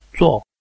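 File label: "zuo4", Mandarin Chinese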